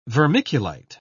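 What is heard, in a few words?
"vermiculite", British English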